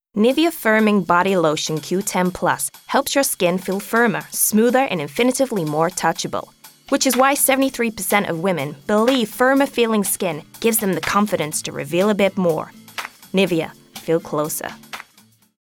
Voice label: Ad american accent; Nivea